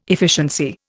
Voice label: "efficiency", Indian